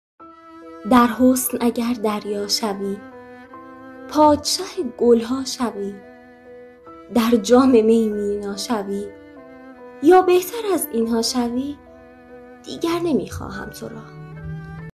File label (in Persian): دکلمه; دیگر نمیخواهم تو را